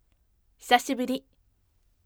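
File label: "hisashiburi", firm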